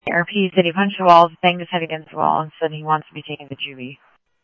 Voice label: Mesa Simulcast, MESA PD, scanner audio

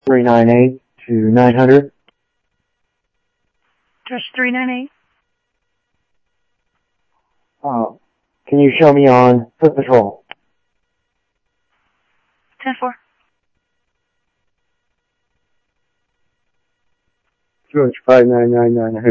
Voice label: Security, scanner audio

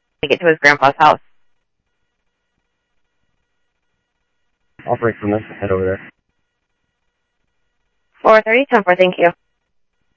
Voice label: Central Sheriff Central, scanner audio trunk

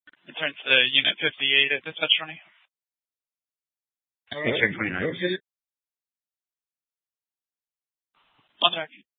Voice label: Police Department, Dispatch

